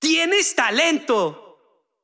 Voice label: Extreme Positive